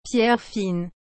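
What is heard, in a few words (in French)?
"pierres fines", French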